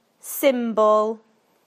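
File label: "symbol", British English; PictureDictionary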